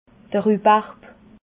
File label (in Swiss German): "rubarb", alsace